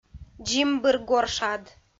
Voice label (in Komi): дзимбыр горшад, kv_proverbs